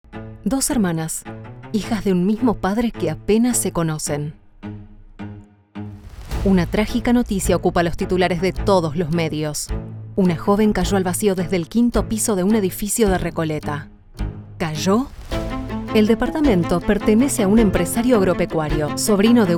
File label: Spanish Argentinian movie trailers